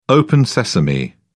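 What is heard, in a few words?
"open sesame", British English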